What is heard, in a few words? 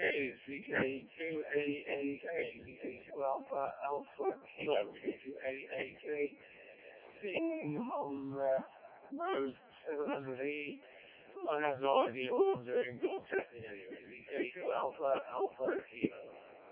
decoded speech, parrot